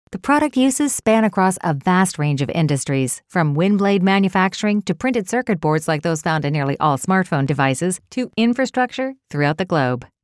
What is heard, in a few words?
Upbeat Genuine